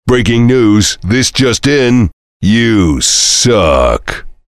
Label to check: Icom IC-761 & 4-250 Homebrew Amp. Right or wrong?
Wrong